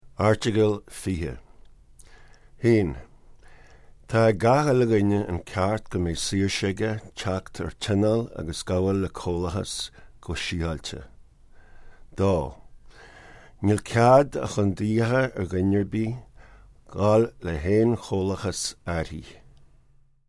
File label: Gaelic